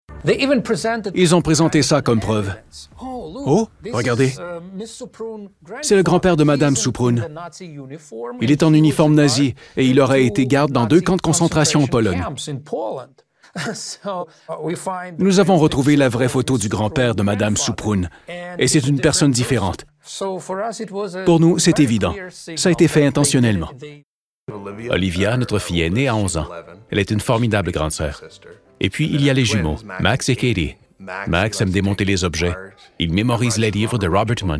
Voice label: french canadian tv shows